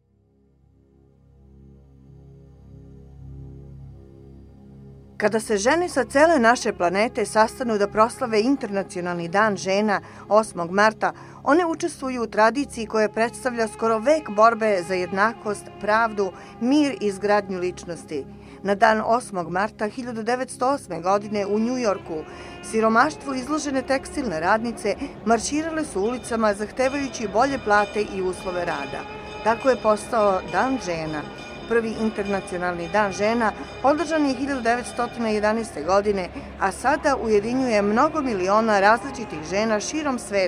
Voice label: Serbian